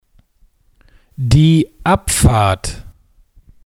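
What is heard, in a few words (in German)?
"Abfahrt", German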